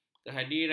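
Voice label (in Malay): "kehadiran", Malay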